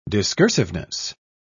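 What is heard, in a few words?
"discursiveness", British English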